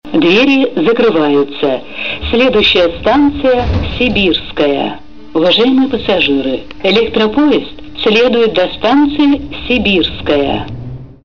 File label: female